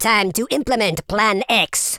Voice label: orders, Super Villain, speech